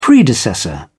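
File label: "predecessor", British English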